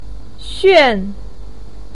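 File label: "xuan4", Mandarin Chinese, pinyin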